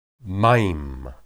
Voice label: "mayim", Hebrew